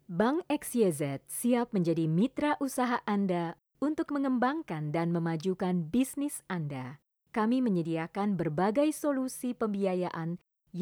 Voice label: INDONESIAN FORMAL